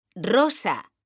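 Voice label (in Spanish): "rosa", female; mekanta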